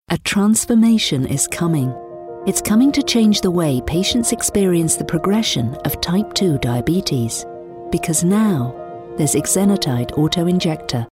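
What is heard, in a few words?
English UK, Corporate; GoLocalise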